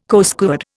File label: "Coastguard", Indian